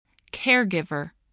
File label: "caregiver", spelling